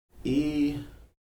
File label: "í", Heiltsuk